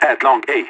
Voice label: longa, radio; LEET